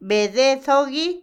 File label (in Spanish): cuento, leyenda, Otomí, mujer; Habla; INALI